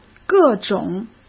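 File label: "各种" Mandarin Chinese; hsk; chinese-flashcards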